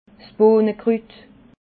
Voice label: "bohnekrut", alsace

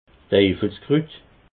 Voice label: "teifelskrut", alsace

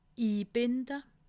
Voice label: "inbinde", alsace

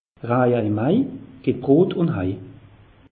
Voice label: "raje", alsace; meteo

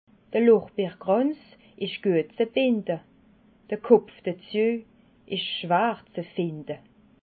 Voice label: "lordbeerkranz", alsace

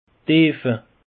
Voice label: "tiefe", alsace; peche

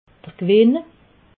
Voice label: "gewenn", alsace

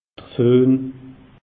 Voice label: "fohn", alsace; meteo

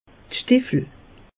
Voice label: "steffel", alsace; meteo

